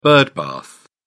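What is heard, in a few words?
"birdbath", British English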